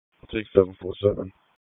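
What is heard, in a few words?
Police Dispatch; Raleigh; Northeast District; wake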